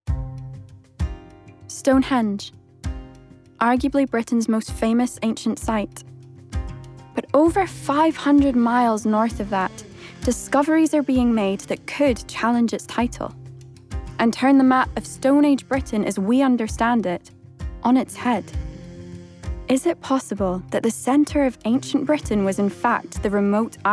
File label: Documentary; Secrets of Orkney